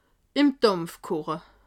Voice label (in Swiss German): "dampf", alsace